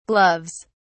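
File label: "gloves", English, British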